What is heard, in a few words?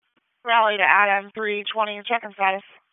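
Raleigh Police Northeast District Dispatch, p25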